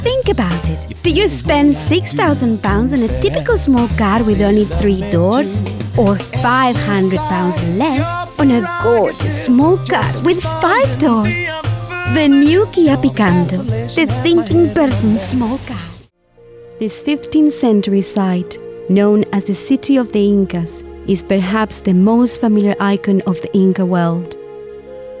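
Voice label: Spanish Latin American English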